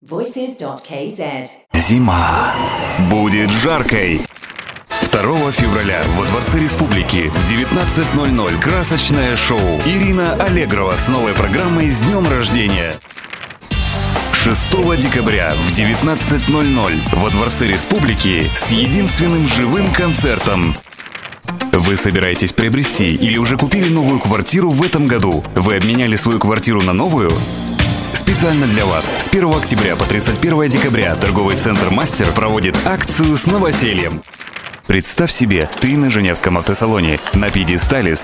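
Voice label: Russian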